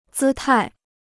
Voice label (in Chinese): "姿态", Chinese